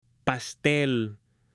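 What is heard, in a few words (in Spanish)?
"PASTEL", Spanish; ALIMENTOS; AppLenguaje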